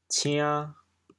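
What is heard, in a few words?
"cian2", Teochew, chaoyang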